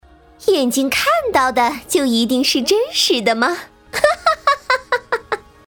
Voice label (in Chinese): 女 动画 角色 妖花